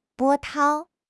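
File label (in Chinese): "波涛", Chinese; HSK6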